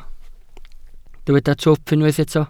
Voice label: "twp", Welsh

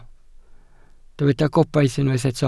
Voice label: "gobaith", Welsh

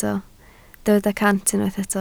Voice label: "cant", Welsh

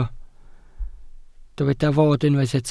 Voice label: "fod", Welsh

